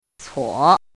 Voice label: "cuo3", pinyin

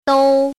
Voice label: "dou1", pinyin

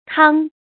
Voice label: "kang1", pinyin

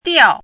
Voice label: "diao4", pinyin

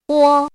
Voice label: "huo1", pinyin

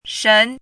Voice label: "shen2", pinyin

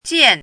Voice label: "jian4", pinyin

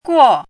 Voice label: "guo4", pinyin

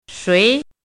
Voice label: "shui2", pinyin

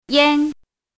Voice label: "yan1", pinyin

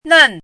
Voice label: "nen4", pinyin

